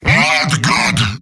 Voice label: heavy negative vocalization; tf2; mvm

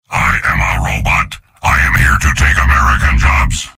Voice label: soldier robot; mvm

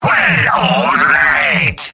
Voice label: demoman positive vocalization; tf2; garrysmod; mvm

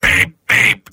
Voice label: soldier robot; mvm

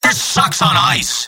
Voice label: scout jeers; mvm

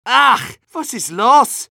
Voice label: medic jeers; tf2

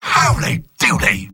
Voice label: sniper positive vocalization; tf2; mvm

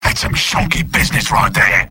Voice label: sniper jeers; mvm

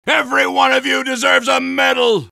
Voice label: soldier cheers; vo; tf2

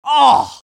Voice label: scout negative vocalization; tf2

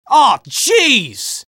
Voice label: jeers

